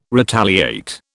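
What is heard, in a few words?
"retaliate", British English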